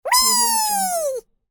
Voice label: minion saying wee

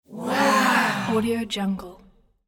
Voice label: teen small group wow; applehillstudios

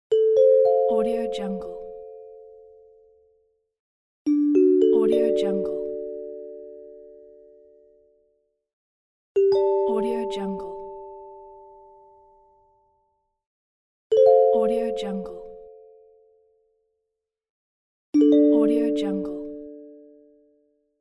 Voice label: airport announcement; n-audio

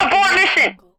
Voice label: female military radio voice abort mission

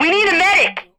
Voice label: female military radio voice we need a medic

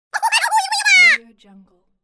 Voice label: silly cartoon voice

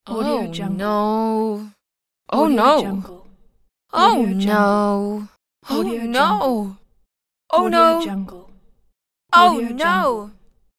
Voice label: woman sad oh no

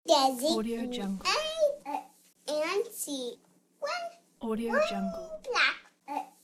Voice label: infant speaking and mumbling

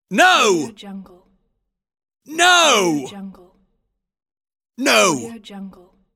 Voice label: male voice angry no

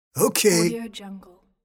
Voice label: old man saying okay; applehillstudios

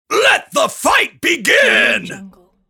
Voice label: announcer let the fight begin

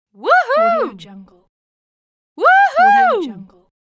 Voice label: female saying "woohoo"

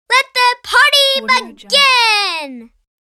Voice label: young boy yelling let the party begin; applehillstudios

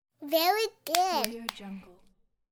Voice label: child saying very good clapping

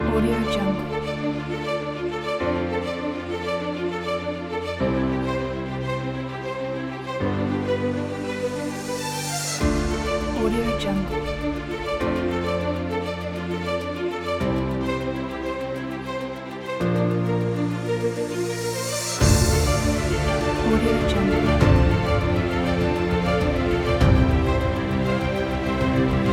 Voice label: cinematic voice pack

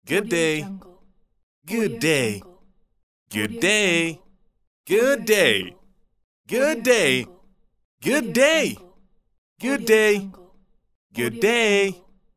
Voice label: male saying good day

